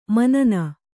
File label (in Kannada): "ಮುನ್ನಿ", Kannada